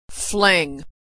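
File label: "fling", American English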